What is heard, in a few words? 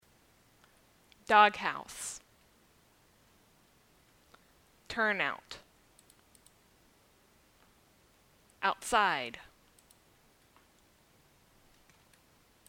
unstressed au